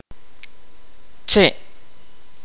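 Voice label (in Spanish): "árbol", kaqchikel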